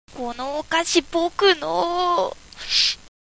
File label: boy